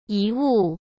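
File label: "遗物", Mandarin Chinese; chinese flashcards; hsk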